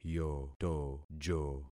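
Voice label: "yotojo", concatenated syllables; Affixing experiment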